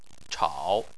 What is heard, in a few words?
"chao3", chinese